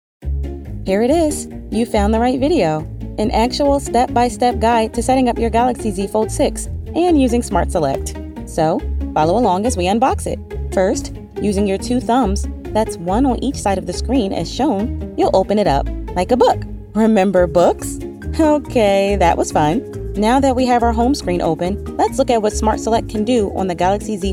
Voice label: E LEARN; e-learning